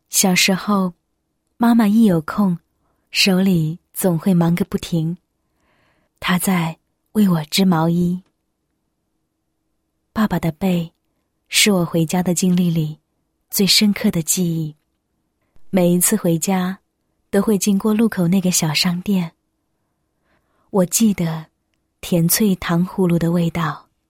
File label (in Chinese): 女, 宣传广告; 凯兰羊绒广告宣传语